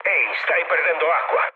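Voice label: Italian damaged water mood low, speech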